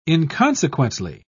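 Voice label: "inconsequently", British English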